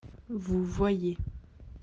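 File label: "voir", French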